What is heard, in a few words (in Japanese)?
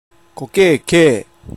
"kokee", hougen; こけぇけぇー; 「ここへ来い」の意。; 方言